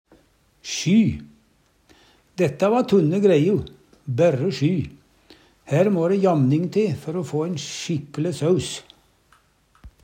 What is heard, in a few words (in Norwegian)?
sjy, Numedalsmål; Detta va tunne greiu, bærre sjy. Hær må'ræ jamning te før o få ein sjikkele saus.